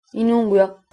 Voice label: good evening, greenlandic